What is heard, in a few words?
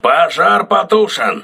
fire doused, crew ships russian